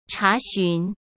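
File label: "查询", Mandarin Chinese; hsk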